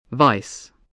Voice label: "vice", English